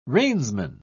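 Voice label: "reinsman", British English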